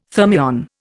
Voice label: "thermion", Indian